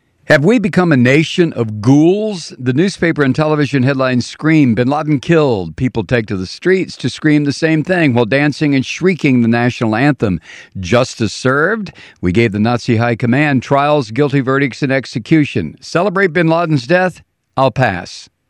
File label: promo